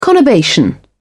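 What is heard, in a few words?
"conurbation", British English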